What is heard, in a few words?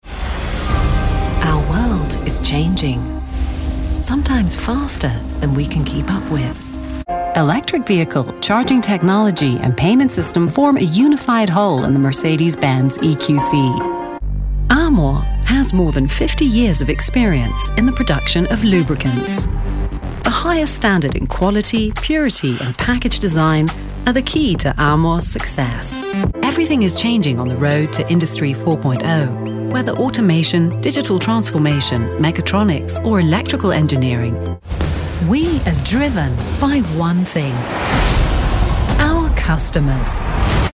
CORPORATE DEMO English International, British voiceover; 40 secs